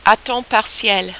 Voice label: "a temps partiel", French

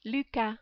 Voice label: "Lucas", French

